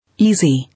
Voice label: "Easy", English

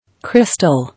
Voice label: "Crystal", English

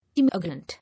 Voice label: "Immigrant", English

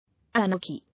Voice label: "Anarchy", English

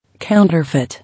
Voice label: "Counterfeit", English

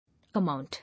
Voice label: "Amount", English

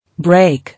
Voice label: "Break", English

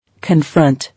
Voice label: "Confront", English

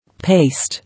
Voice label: "Paste", English

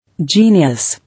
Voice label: "Genius pl. –es or genii", English

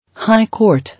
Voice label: "High court", English

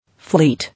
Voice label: "Fleet", English

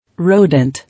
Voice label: "Rodent", English

